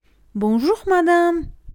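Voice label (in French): "bonjour madame", French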